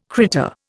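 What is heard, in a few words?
"critter", Indian English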